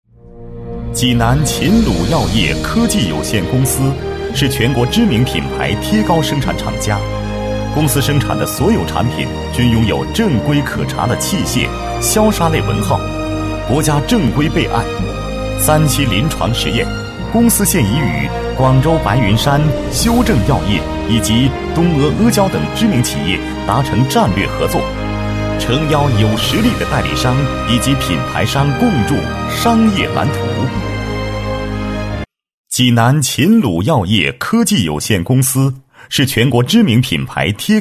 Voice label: 男-制药企业宣传; 济南秦鲁药业科技有限公司

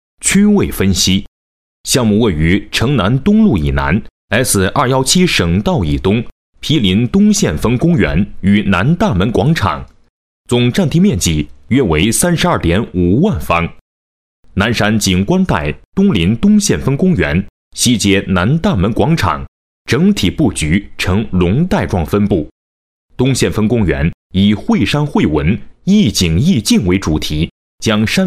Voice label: 男 多媒体 景观带, 厚重

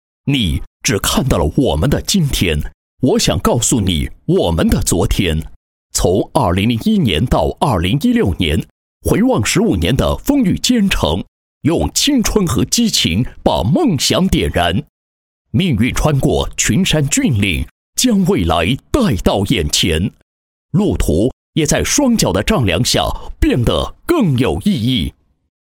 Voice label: 男, 化妆品企业 宣传配音; 集鑫隆公司

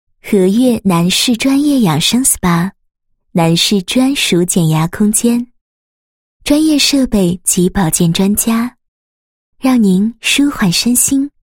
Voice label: 女 宣传广告; 禾悦男士专业养生SPA

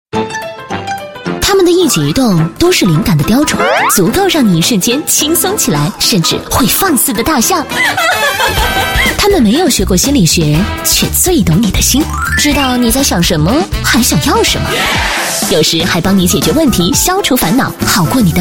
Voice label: 女 栏目包装, 国语女声配音; 优雅; 主播驾到2

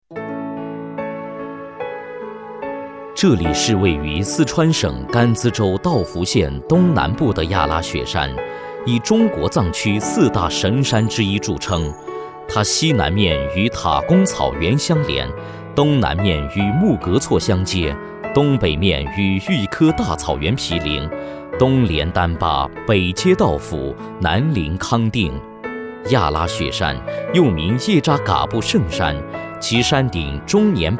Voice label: 男, 专题解说; 噶氏家族

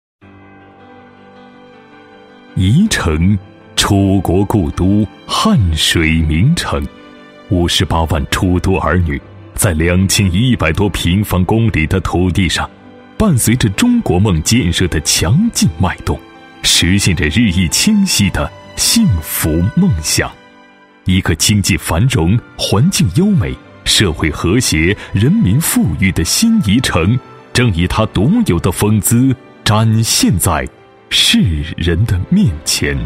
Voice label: 男 专题 厚重大气 地方部门, 国语男声配音

